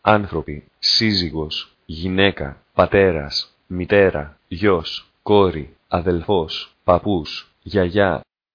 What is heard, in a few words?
greek; people